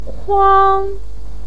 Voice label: "kuang1", Chinese pinyin voice